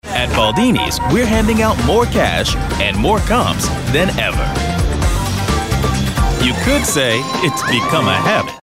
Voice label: Friendly